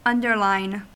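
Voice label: "underline", US English; pronunciations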